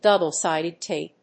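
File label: "double sided tape", English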